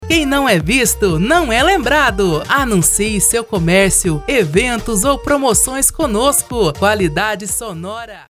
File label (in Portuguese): locutora